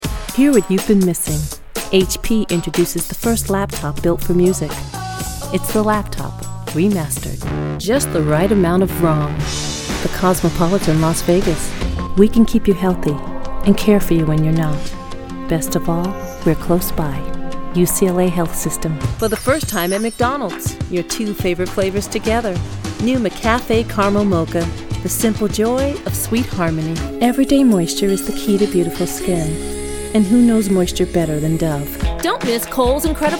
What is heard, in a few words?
commercial